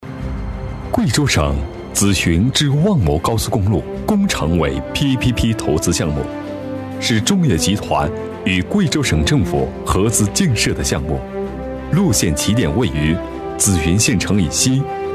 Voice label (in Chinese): 高速公路宣传片配音; 贵州